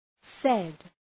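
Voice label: "said", English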